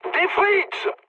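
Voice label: enemy detected, mood high, French; War Thunder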